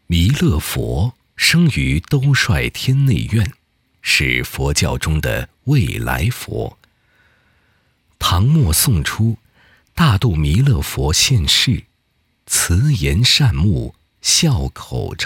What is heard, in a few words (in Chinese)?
男 纪录片; 弥勒佛